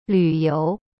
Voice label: "旅游", Chinese; lu you; cyberchinese

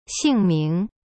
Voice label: "姓名", Chinese; xing ming

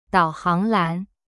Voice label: "导航栏", Chinese; dao hang lan

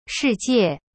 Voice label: "世界" shi jie, chinese

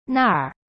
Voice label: "那儿" na r, chinese

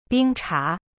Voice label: "冰茶 bing cha", Chinese; cyberchinese

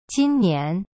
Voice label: "今年" jin nian, chinese

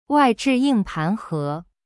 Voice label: "wai4zhi4ying4pan2he2", Chinese; cyberchinese

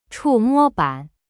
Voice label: "chu4mo1ban3", Chinese; cyberchinese

